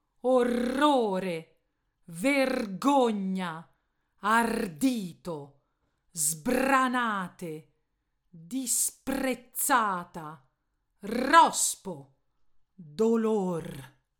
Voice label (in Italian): R doppia drammatica